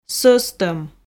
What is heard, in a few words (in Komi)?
"сӧстӧм", Komi